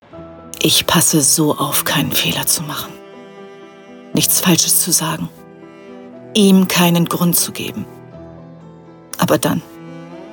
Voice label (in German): synchron; eines tages